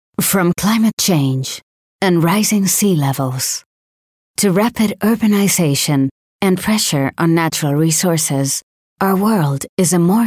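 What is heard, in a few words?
Spanish, female, English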